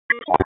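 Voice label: Raleigh Police North District Tactical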